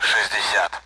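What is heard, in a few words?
Speech Sound Russian Common AWACS Numbers; DCS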